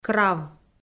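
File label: "крав", Komi, female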